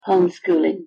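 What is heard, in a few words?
homeschooling, English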